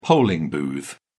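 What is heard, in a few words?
"polling booth", British English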